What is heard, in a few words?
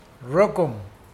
crab, Mokilese